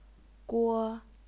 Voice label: "guo1", chinese pinyin

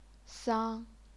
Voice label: "sang1", chinese pinyin

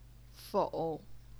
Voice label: "fou3", chinese pinyin

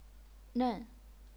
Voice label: "nen4", chinese pinyin

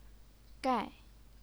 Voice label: "gai4", chinese pinyin

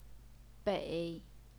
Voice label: "bei3", Chinese pinyin

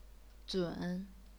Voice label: "zun3", chinese pinyin

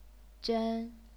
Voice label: "zhen1", chinese pinyin

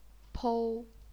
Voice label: "pou1", chinese pinyin

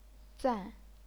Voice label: "zan4", chinese pinyin

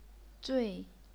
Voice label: "zui4", chinese pinyin

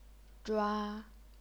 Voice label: "zhua1", chinese pinyin

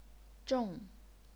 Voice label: "zhong4", chinese pinyin

